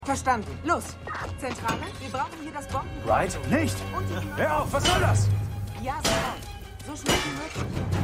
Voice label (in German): Polizistin; Prodigal Son